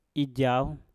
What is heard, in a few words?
"ijauw", Mpur; angin